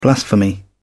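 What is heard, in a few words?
"blasphemy", British English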